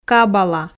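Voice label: "кабала", Komi, female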